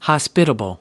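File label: "hospitable", American English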